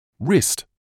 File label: "wrist", medical English